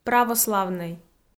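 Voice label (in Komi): "православнӧй" Komi, female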